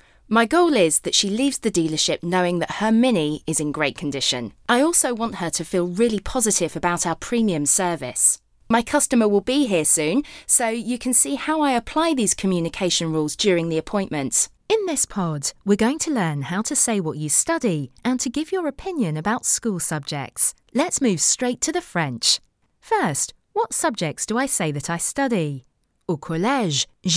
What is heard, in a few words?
e-learning